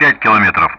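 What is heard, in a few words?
Speech Sound Russian Common Player; DCS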